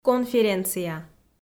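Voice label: "конференция" Komi, female; audio dict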